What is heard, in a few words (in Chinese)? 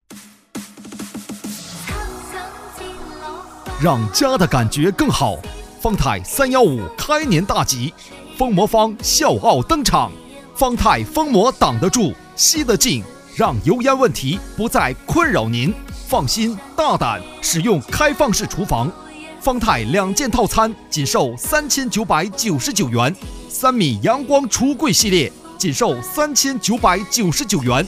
促销